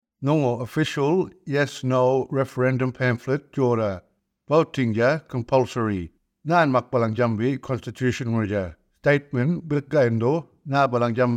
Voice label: Yolngu Matha; Referendum Booklet; Constitution Alteration